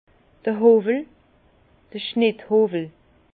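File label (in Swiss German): "howwel", Alsace Bas Rhin; vin; rabots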